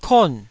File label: "kon4", taiwanese syllables